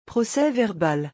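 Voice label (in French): "procès verbal", French; vocabulaire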